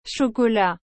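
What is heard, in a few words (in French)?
"chocolat", French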